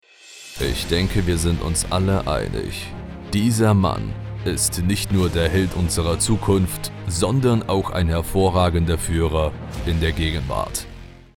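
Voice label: German, male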